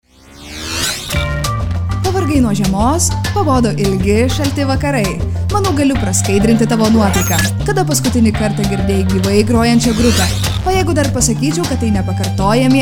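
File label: lithuanian female commercial; voice demos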